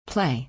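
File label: "play", English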